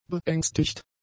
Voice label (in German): "beängstigt", German; adjectives